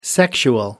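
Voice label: "sexual", British English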